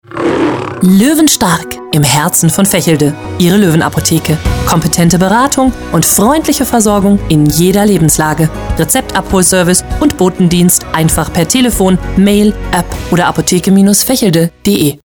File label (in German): Funkspot; Loewen Apotheke